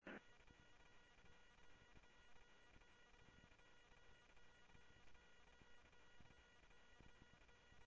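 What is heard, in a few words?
Sheriff Lake Patrol, scanner audio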